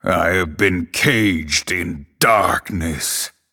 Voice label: Male Night Elf Picked

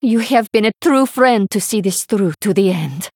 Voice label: Female Draenei Victory Post Explosion

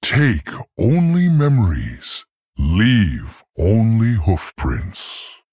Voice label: Male Tauren Picked

